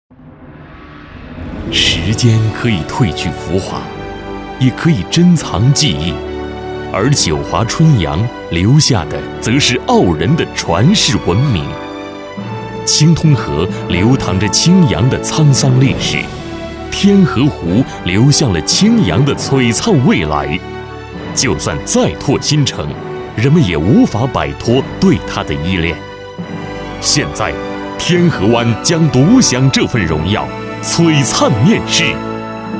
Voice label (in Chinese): 宣传片, 地产, 温情; 青阳天河湾